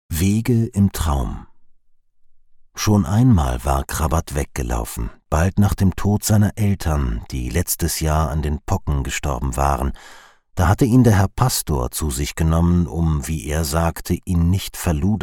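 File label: German Audiobook Male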